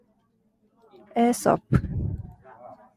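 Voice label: "Aesop", Japanese, female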